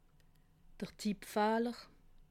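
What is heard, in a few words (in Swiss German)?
"tippfahler", Alsatian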